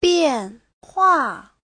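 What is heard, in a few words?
"biànhuà", Mandarin Chinese; Giáo trình Hán ngữ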